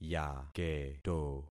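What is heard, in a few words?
"yaketo", concatenated syllables; Affixing experiment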